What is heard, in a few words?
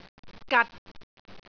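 "gat6", Cantonese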